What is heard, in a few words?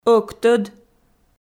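"ӧктӧд", Komi, female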